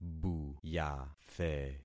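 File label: "buyathe", concatenated syllables; Affixing experiment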